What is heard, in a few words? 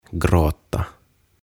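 "Gråta", "Itkeä", Swedish, Finnish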